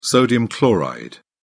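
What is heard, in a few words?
"sodium chloride", British English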